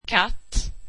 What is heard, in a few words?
swedish cat